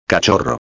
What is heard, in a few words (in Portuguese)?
"cachorro", espanhol; palavra do dia